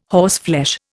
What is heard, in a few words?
"horseflesh", Indian